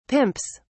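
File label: "pimps", British English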